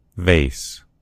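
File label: "vase", American English; gcompris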